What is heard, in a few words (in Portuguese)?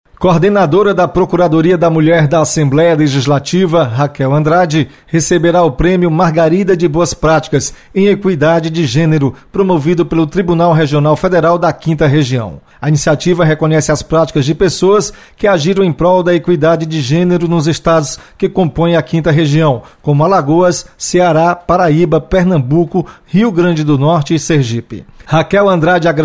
notícias, radio fm; Prêmio / Coordenadora da Procuradoria da Mulher é agraciada com prêmio de boas práticas; (2'00)